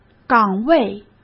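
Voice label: "岗位", Mandarin Chinese; hsk; chinese-flashcards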